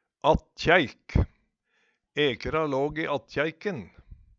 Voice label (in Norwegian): "attkjæik", Numedalsmål